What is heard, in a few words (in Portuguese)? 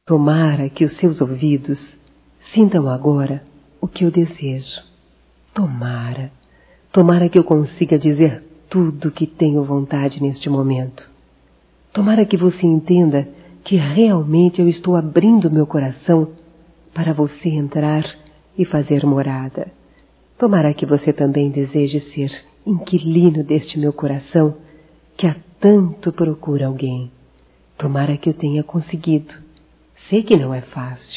CONQUISTA (F); Românticas